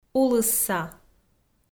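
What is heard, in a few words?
"улысса" Komi, female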